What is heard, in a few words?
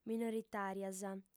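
"minoritàrias" Sardinian, female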